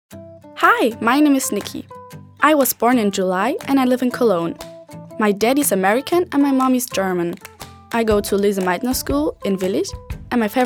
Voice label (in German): Selbstvorstellung EN